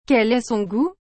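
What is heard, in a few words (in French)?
"Quel est son gout", French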